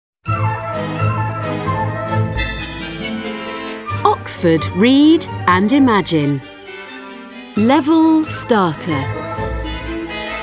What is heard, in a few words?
At the Zoo British English; BrE; oxford read and imagine